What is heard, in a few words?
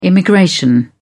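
"immigration", British English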